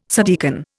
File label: "subdeacon", Indian